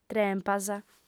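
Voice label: "trempas", Sardinian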